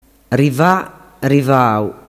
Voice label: "rivavau", dialettu

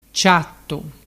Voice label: "ciattu", dialettu

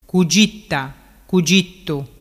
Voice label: "cugittagittu", dialettu

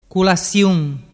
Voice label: "culasiun", dialettu

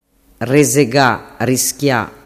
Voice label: "rezegarischia", dialettu

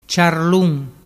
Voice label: "ciarlun", dialettu

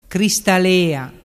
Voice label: "cristalea", dialettu

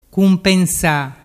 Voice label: "cumpensa", dialettu

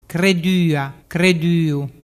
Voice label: "creduaduu", dialettu

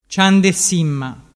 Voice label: "ciandesimma", dialettu

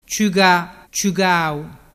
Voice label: "ciugaciugau", dialettu

